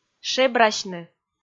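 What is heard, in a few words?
"шебрасьны", Komi, female; kpv audio dict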